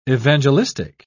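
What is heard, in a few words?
"evangelistic", British English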